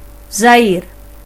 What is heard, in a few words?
"congo", voices el; geography; gcompris